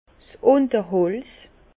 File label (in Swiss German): "unter holz", Bas Rhin; vin; sous bois